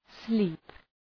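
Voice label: "sleep", English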